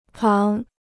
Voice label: "旁", Chinese